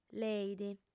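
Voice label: "leit", Sardinian, female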